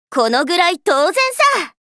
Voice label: Voice victory